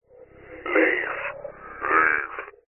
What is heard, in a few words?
horror ghost evp spirit box eerie male says leave glitchy recorded lo fi; zapsplat